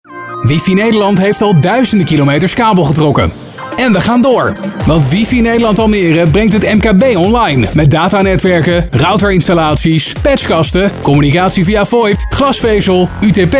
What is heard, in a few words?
Commercial, reklameblok; Wifi Nederland; easy FM; easyfm95 5